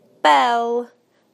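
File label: "bell", British English; PictureDictionary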